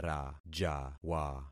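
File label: "rajawa", concatenated syllables; Affixing experiment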